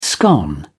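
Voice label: "scone", British English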